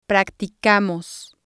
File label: "practicamos", spanish